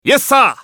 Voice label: オーシュマスケッティア男 選択

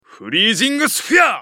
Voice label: ウォーロック男･フリージングスフィア

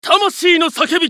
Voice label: ウィザード男･ストライクバック

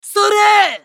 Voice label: ファイター男･攻撃長

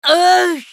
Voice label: ファイター男 ダウン, 男

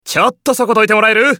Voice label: オーシュマスケッティア男 アンダーカバーリング

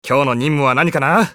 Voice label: 男･移動; オーシュマスケッティア

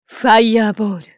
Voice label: ウォーロック女･ファイアーボール

